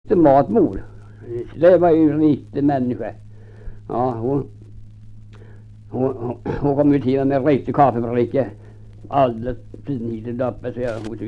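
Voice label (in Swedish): Viby Närke, dialekter, Svenska sagor; Folkminnen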